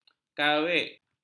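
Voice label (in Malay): "kawik", Malay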